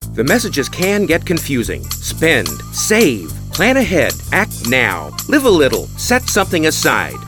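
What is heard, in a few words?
Hard Sell, Voice Actor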